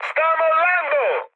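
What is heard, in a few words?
Italian bailout mood high, speech; War Thunder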